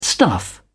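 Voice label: "stuff", English, uk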